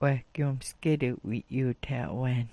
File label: "'wahgyoomsgyetk", smalgyax